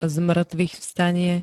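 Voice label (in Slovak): "zmŕtvychvstanie", Slovak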